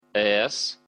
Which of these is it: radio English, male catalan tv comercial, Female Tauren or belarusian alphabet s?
belarusian alphabet s